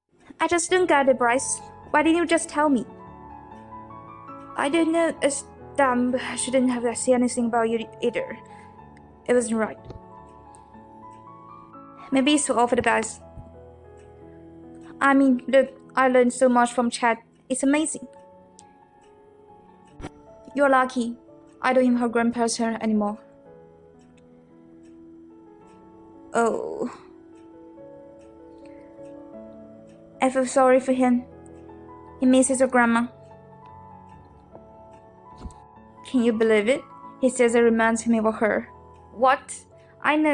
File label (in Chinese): 英文配音; 怦然心动